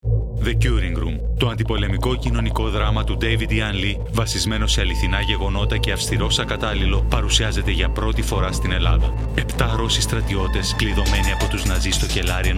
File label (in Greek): Spot; Cυring